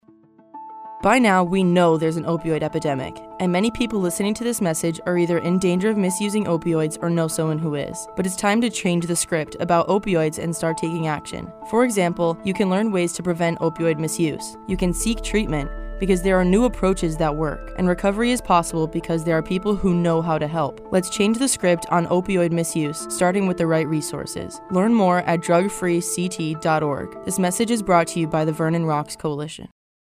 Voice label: Radio PSA; CTS